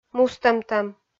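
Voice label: "мустӧмтӧм", Komi, female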